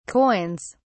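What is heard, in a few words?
"coins", British English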